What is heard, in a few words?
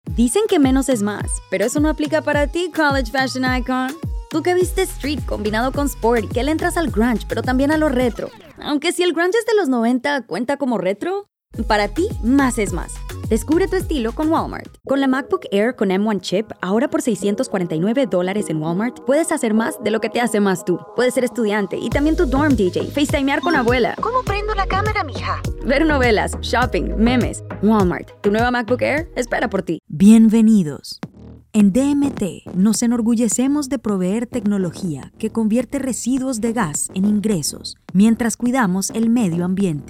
Spanish VO REEL